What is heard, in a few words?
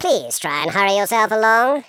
"hurry", Patronising